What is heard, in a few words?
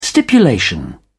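"stipulation", English, British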